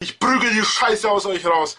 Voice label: angry; spackenmobs